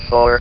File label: "for", VIDEO GAMES; gorf